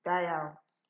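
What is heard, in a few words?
"die out", Nigerian Pidgin, female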